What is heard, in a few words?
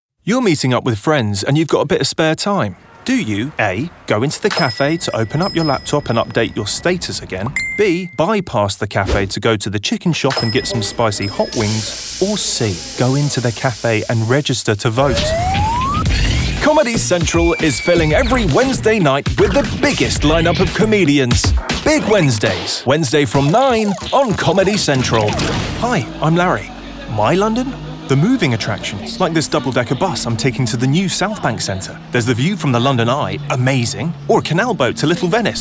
Commercial